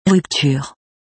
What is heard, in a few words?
"Rupture", English